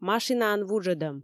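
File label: "машинаӧн вуджӧдӧм", Komi, female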